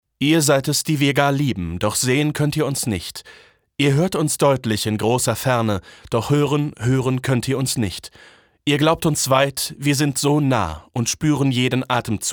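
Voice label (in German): sachlich, Sprecher, Männer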